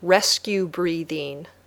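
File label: "rescue breathing", English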